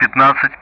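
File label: Speech Sound Russian; DCS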